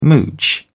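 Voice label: "mooch", British English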